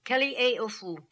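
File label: "Kelli E Ofoo", pronunciations; Fourth Way; Beelzebub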